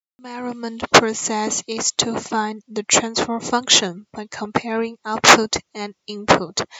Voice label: rap input